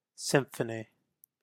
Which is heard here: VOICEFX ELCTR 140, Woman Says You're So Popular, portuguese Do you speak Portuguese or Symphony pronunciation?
Symphony pronunciation